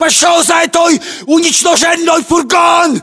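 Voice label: order cover van destroyed, battlechatter, Russian; localized english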